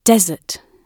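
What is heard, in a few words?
"desert" British English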